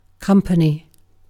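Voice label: "company", British English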